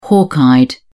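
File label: "hawk eyed", British English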